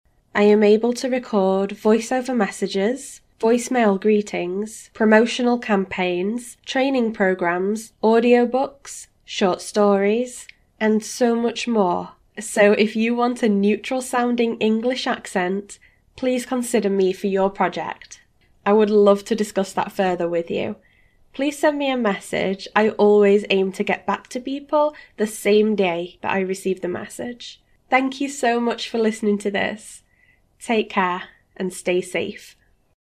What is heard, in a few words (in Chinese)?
英式女声